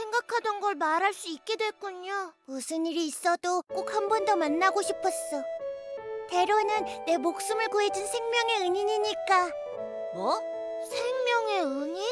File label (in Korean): 개구리 캐릭터 귀여운 여아; 요괴학원